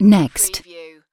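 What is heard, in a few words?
"next", British female; playcontrols; lynne publishing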